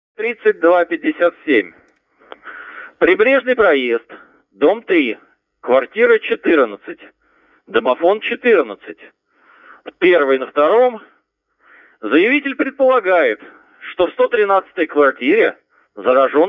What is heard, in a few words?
audio Message